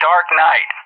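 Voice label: "Darknight", English; JTAC; Callsign; DCS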